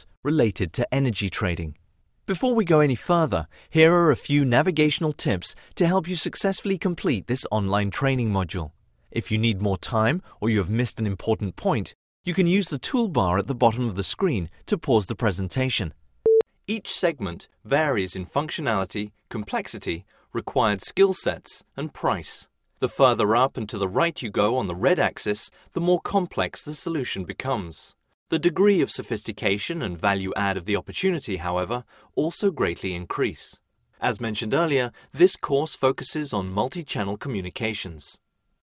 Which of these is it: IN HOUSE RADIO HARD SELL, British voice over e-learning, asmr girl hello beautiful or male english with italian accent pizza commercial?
British voice over e-learning